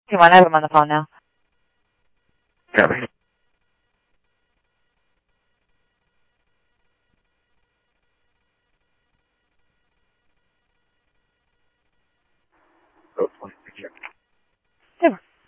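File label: Simulcast police department, scanner audio trunk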